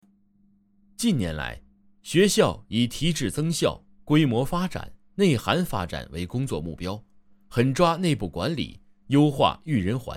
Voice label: 学校宣传

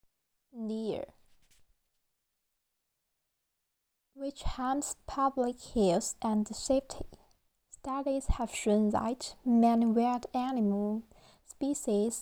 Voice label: 英语 语段

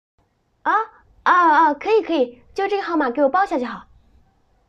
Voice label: 日常戏感练习敷衍

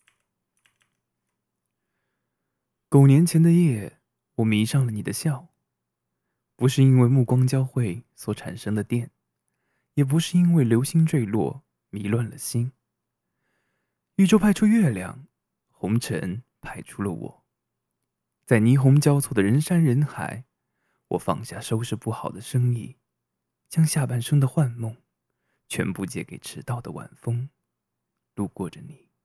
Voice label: 国语情诗

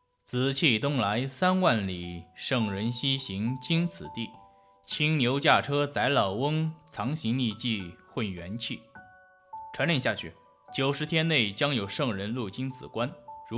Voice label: 广播剧

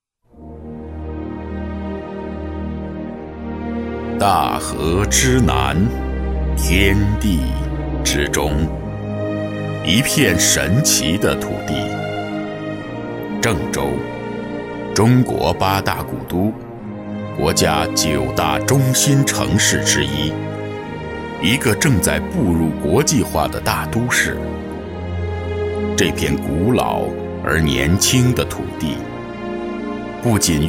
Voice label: 企业 宣传片 配音

